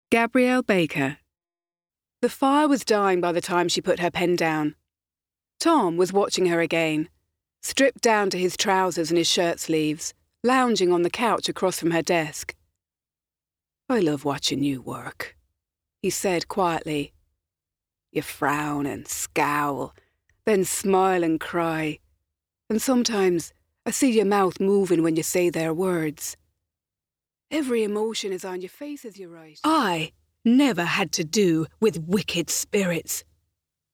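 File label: Drama Narration, voiceover; VOICEREEL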